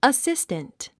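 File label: "assistant", English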